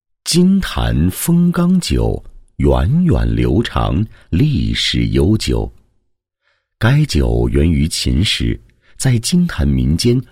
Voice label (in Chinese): 男 宣传; 酒类; 金坛封缸酒宣传